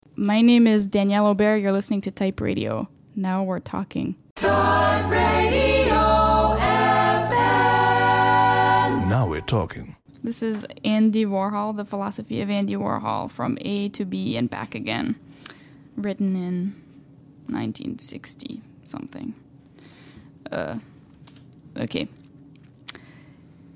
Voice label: bookreading; Typeradio